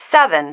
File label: "seven", female